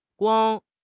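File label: "guang1", Mandarin Chinese; HSK4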